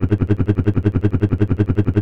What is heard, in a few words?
talk LOOP; Busts